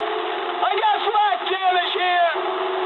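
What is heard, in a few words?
damaged anti-aircraft mood medium, speech English; War Thunder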